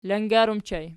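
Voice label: "langar" ergative plural, Archi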